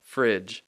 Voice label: "fridge", English

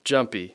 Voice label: "jumpy", English; Speech Pro

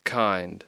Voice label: "kind", English

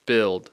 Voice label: "build", English; Speech Pro

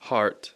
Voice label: "heart", English

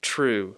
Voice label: "true", English

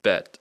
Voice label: "bet", English

